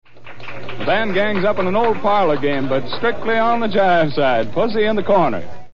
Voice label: CBS announcer